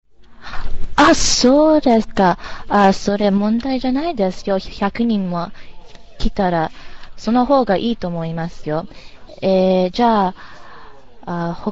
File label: Japanese Return Telephone Call